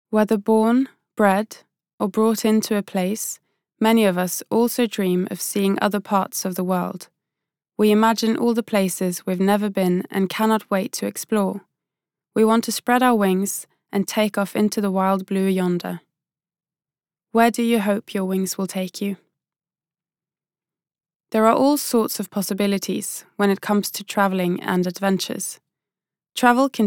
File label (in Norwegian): fagbok britisk, norwegian, female